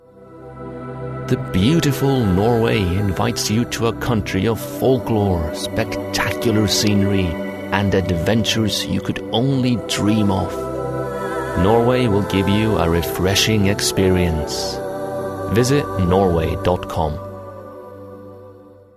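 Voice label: Norwegian English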